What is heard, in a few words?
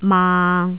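"maang6", Cantonese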